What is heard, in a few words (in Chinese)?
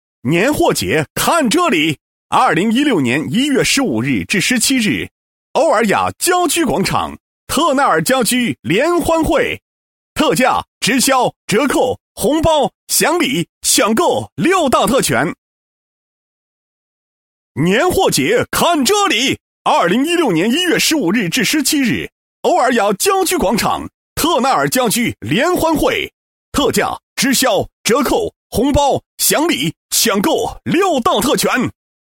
男 促销; 特耐尔家居联欢惠